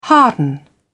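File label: "harden", British English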